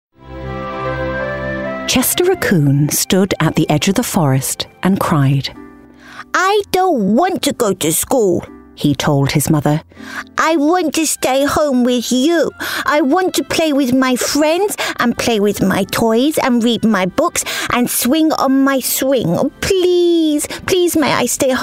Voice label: Voice Over AUDIOBOOK Voice Reel